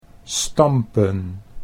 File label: "stampen", Dutch